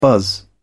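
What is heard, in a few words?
"buzz", English, British English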